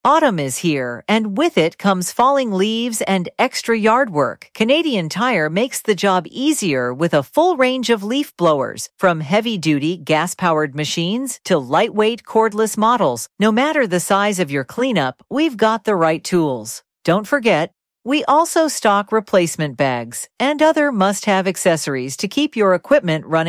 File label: female